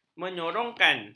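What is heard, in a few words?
"menyorongkan", Malay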